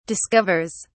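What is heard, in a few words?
"discovers", English, British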